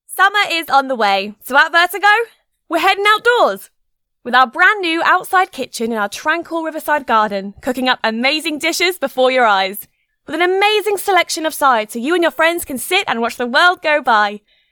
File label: Commercial